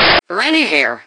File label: grunt; svencoop; angel